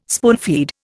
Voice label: "spoonfeed", Indian